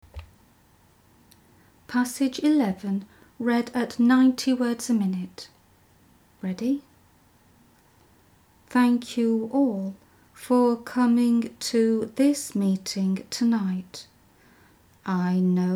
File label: 90wpm; Teeline